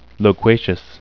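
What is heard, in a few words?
"loquacious", English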